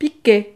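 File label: "piquet", French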